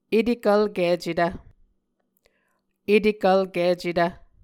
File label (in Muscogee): "etekvlkicetv", Muscogee; Mvskoke Words with Voicing Consonants; A Dictionary of Creek/Muskogee; SIL International; Onvkv Ohhvyayices